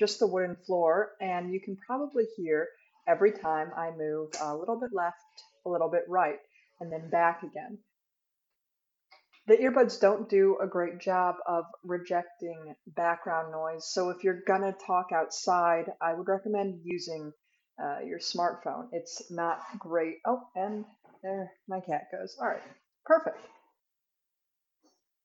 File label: UA True Wireless FLASH X by JBL microphone demo